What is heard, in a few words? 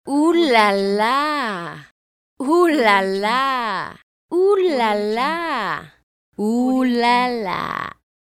female voice sexy ooh la la